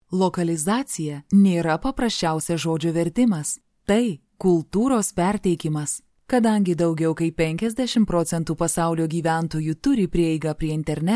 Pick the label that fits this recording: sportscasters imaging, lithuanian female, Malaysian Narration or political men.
lithuanian female